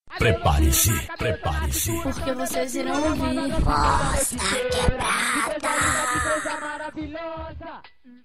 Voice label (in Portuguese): voz da quebrada, vinheta